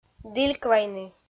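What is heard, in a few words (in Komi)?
"дільквайны", Komi, female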